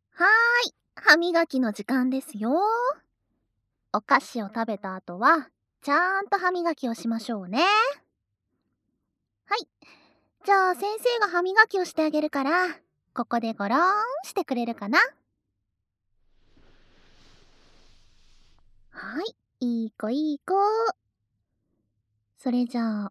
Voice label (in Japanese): 歯磨きはしっかりしないとですよっ♪, ASMR